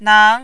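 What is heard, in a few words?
"nang", Mandarin, second tone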